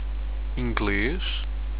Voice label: english, europortuguese